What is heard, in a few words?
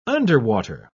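"underwater", English word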